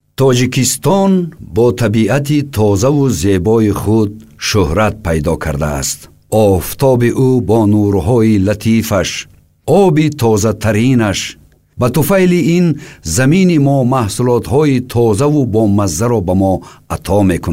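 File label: Tajikistan male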